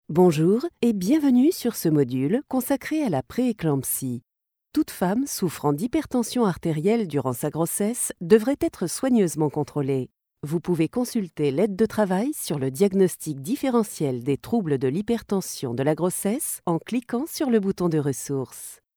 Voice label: MEDICAL E LEARNING